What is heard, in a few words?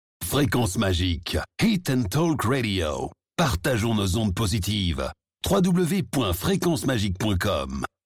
Drop ID; Frequence Magic